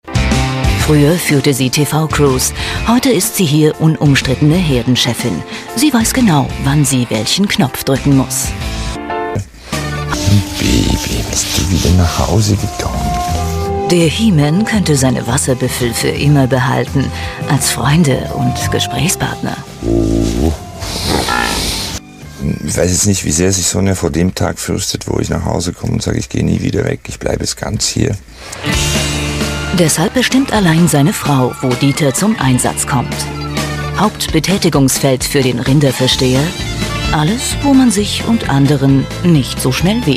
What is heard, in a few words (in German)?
dokumentation; sat.1; dieter moor